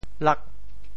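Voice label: "lak8", Teochew; 揭阳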